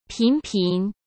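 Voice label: "频频", Mandarin Chinese; chinese flashcards; hsk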